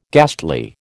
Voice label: "ghastly", American

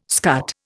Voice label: "scut", Indian

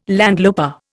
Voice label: "landloper", Indian

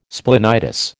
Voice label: "splenitis", American

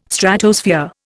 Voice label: "Stratosphere", Indian

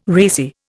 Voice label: "racy", Indian

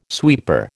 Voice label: "sweeper", American

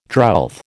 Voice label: "drouth", American

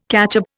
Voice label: "catchup", Indian

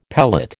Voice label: "pellet", American

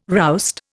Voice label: "roust", Indian

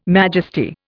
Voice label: "majesty", Indian

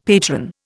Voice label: "patron", Indian; Dictionary

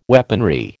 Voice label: "weaponry", American

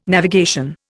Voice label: "navigation", Indian

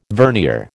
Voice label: "vernier", American

